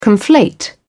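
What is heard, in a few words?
"conflate", British English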